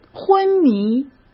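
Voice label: "昏迷", Mandarin Chinese; hsk; chinese-flashcards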